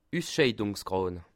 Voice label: "usscheidung", alsace

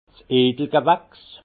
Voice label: "edelgewachs", alsace; vin; grands crus

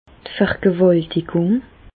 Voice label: "vergewaltigung", Bas Rhin; medecine; viol

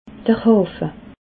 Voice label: "hafe", alsace

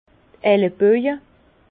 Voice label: "elleboje", Bas Rhin; vin; aune

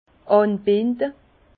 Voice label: "anbinde", Bas Rhin; vin; attacher le cep

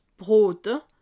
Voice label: "brote", alsace

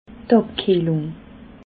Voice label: "abkiehlung", alsace

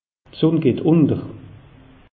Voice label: "sunn", alsace; meteo

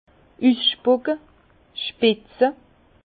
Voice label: "usspucke", Bas Rhin; vin; cracher

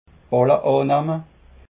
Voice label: "balle annehme", alsace

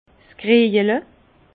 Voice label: "kriejele", Bas Rhin; vin; carafe

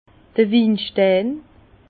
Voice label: "winstein", Bas Rhin; vin; tannin

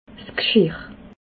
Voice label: "gschirr", alsace

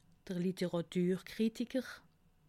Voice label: "literaturkritiker", alsace

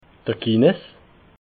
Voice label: "kines", alsace

